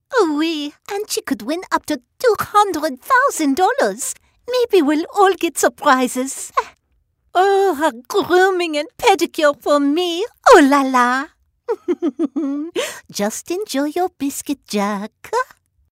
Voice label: Accent French